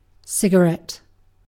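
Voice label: "cigarette", British English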